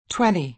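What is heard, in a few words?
"twenty", American English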